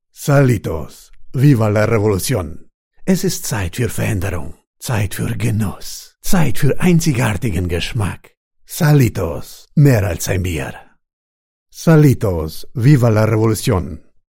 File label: german commercial